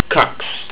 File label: two, estonian